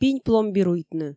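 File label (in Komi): "пинь пломбируйтны", Komi, female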